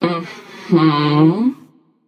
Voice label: agree passion; chaac

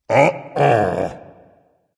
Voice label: disagree dragon; fafnir

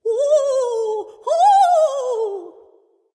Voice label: spooky; cupid

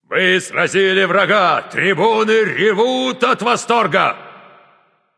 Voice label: slain you enemy, russian; caster

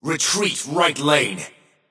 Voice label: vox retreat; smite; xingtian

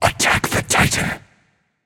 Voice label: vox attack m; smite; khepri

